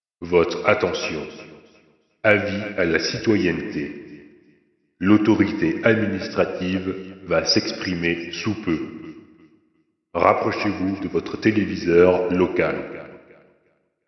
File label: broadcast